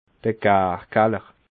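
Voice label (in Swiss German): "garkaller", Alsatian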